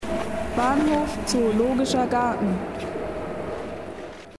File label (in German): ansage bahnhof zoo; linie1